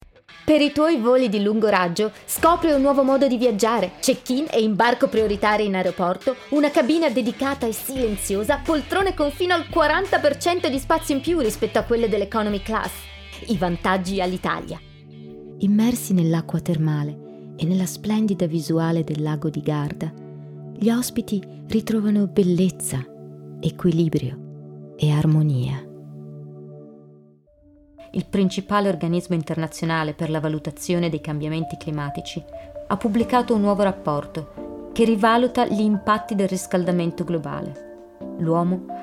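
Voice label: Italian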